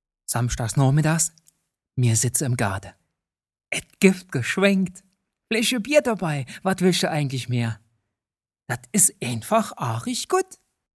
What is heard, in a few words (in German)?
saarländisch